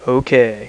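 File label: "okay", male, voice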